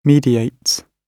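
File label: "mediates", British English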